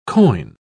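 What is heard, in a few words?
"coign", British English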